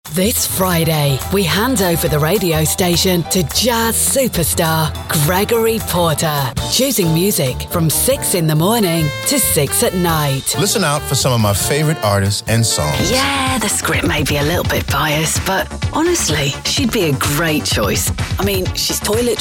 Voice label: promos

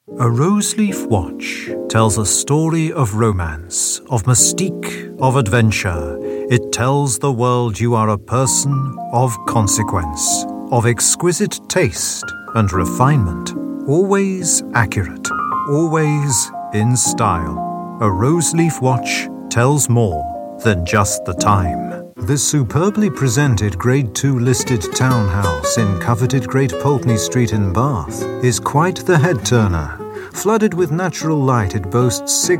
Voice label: commercial

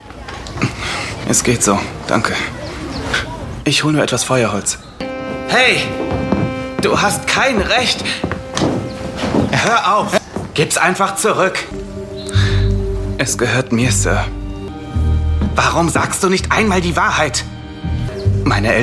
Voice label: german voice character, Young German Male

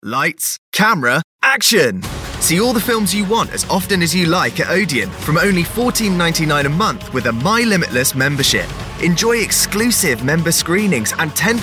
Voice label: radio ad; odeon cinemas

